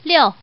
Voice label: Woman